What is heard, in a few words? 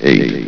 "eight", vox